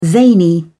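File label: "zany", British English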